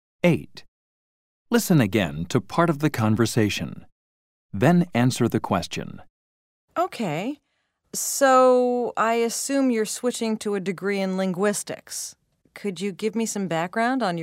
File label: TOEFL Level Check Listening